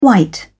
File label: "white", uk